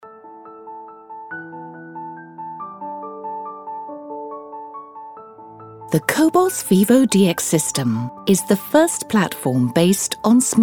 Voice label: instructional